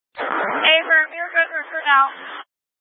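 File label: Incident Tac 1, Scanner audio recording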